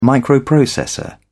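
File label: "microprocessor", British English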